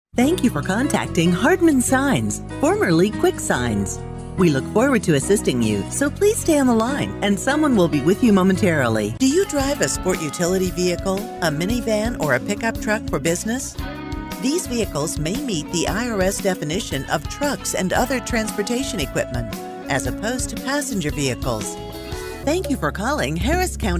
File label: female; TELEPHONE VOICE OVER TALENT